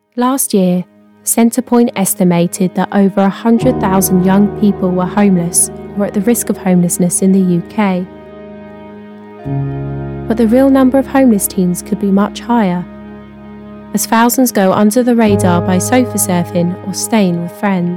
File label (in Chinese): 外籍英语女声, 孤儿救助宣传, 深情讲述